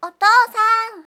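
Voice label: "otoosan", Japanese; hito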